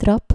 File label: "trap", Dutch